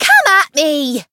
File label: chant; urania